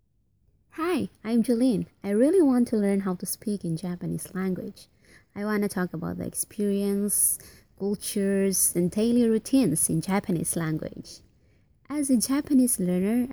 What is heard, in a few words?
english voice